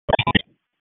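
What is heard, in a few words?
EMS DISP, radio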